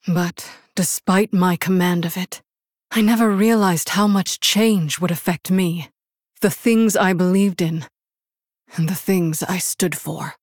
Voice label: Human Female; Story Hero Jaina; Story Jaina Pre Mission1